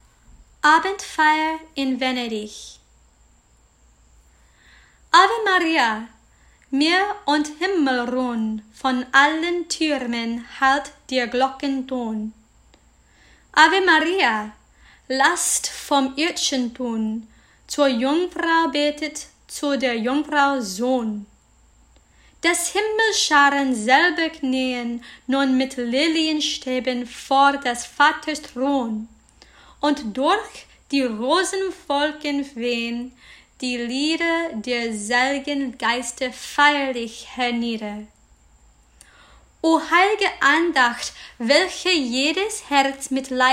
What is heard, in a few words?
schumann normal speech